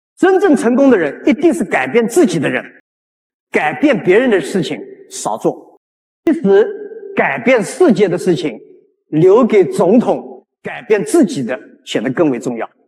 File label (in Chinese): 平静女声配音; 平静女声配音：适用于健康与冥想的自然AI旁白; 使用舒缓、平静的女声配音; 千万不要随便吵架或生闷气，也不要钻牛角尖。因为人在生气以后，身体就容易出现问题。